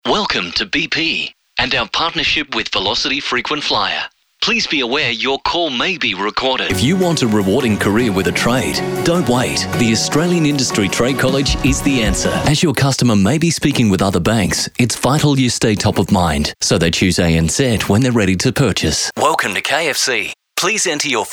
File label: ELEARNING AND CORPORATE NARRATION